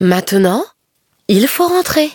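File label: victory, French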